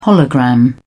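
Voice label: "hologram", British English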